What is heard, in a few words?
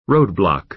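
"roadblock", American English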